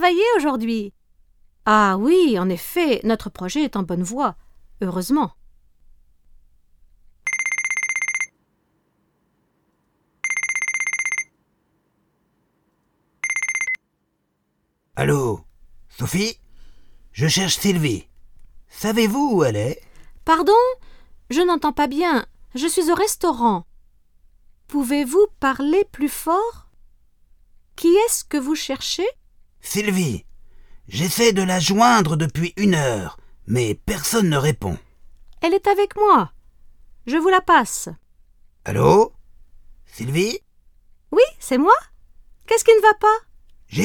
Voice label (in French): Coup de telephone